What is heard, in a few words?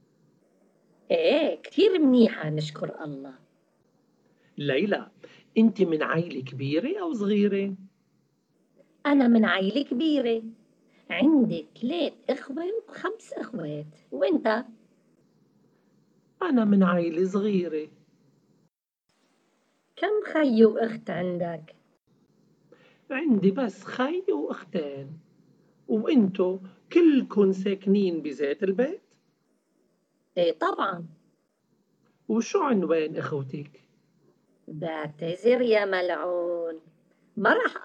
dialogue, Arabic MSA